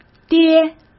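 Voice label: "爹", Mandarin Chinese; hsk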